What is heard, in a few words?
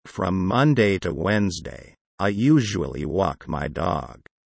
low